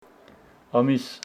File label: Homshetsi mounth